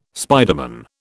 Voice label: "spiderman", British